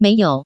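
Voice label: "meiyou", Taiwan Mandarin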